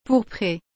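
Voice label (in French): "pourprée", French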